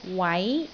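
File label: "wai2", Cantonese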